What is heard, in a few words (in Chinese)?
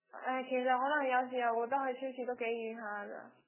粤语; 诶，其实可能有时候觉得去超市都几远下噶。